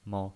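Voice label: "mou", pinyin voice, Mandarin Chinese, neutral tone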